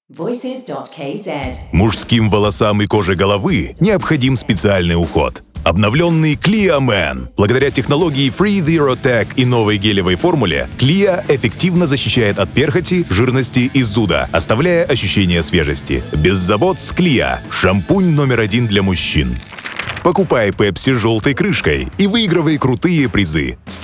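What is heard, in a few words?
Russian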